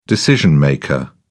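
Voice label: "decision maker", British English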